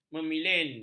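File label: "memilin", Malay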